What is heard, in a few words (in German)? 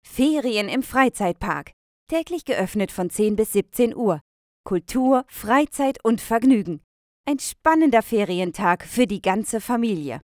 Werbebeispiel Familie und Freizeit